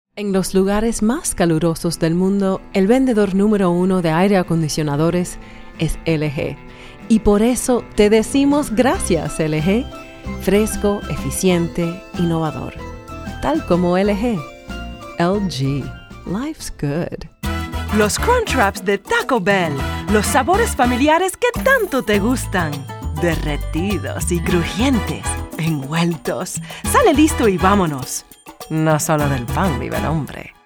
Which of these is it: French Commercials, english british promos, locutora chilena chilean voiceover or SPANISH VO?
SPANISH VO